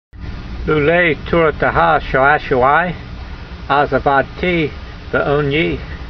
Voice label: voice, hebrew language; Psalm 119:92; loolay torateha sha'a'shu'ai, az a'vad'tee ve'on'yee